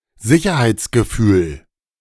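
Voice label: "Sicherheitsgefühl", German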